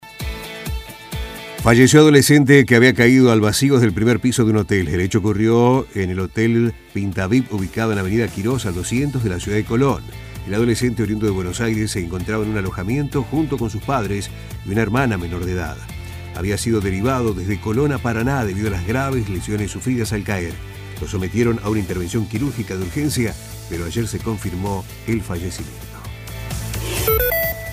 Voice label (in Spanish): informativo; fallecio joven